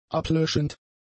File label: "ablöschend", German; adjectives